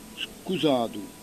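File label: "scusatu", Corsican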